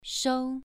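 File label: "shou" Mandarin Chinese, second tone, pronunciation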